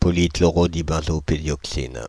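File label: "polychlorodibenzo-p-dioxine", French, Paris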